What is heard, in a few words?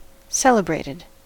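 "celebrated", US English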